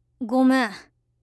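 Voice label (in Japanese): "ごめん", Japanese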